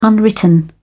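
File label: "unwritten", English, British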